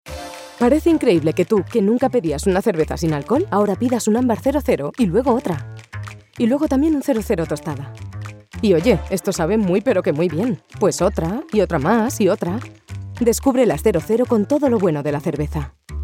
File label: spanish voice continuity radio